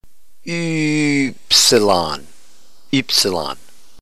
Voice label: "upsilon", ntgreek